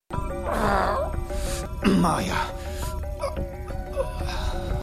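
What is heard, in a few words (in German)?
Bösewicht; Black Lightning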